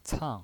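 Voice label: "cang", Mandarin Chinese, fourth tone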